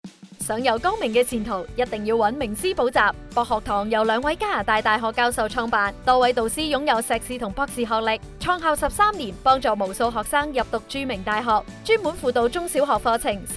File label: Radio Cantonese; Praxis Learning Center